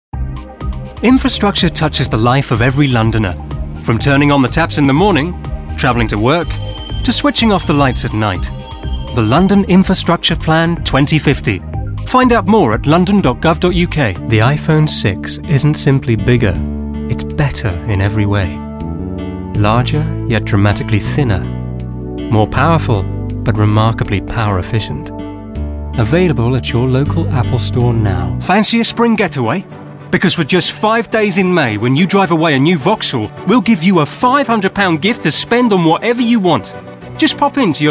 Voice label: Commercial

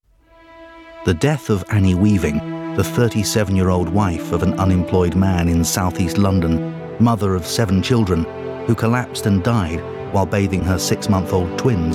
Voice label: Documentary

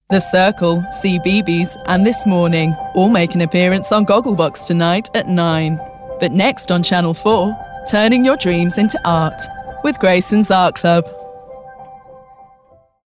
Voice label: TV Promo Continuity; C4

